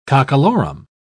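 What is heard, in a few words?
"cockalorum", British English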